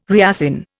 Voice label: "reassign", Indian

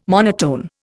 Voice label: "monotone", Indian

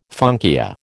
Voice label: "funkia", American

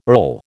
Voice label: "earl", American

